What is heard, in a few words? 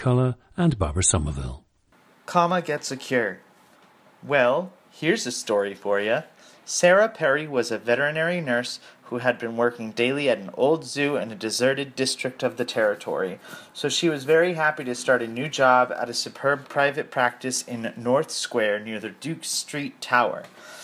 massachusetts, dialects